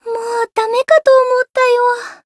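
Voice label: Voice win barely, Japanese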